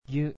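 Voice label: "gyu", Japanese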